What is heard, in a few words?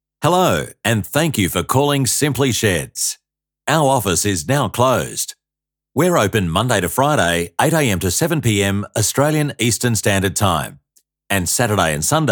Voicemail Greeting